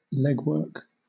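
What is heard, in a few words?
"legwork", British English; pronunciations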